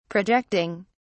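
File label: "projecting", British English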